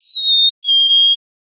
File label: female